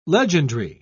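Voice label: "legendry", British English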